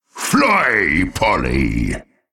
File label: Male Qiraji Play